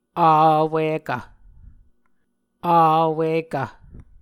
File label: "avwikv", muscogee